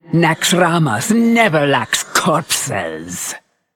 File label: Female Draenei Play